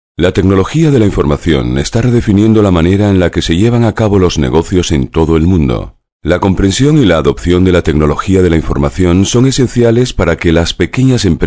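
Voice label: spanish male voice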